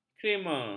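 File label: "krimer", Malay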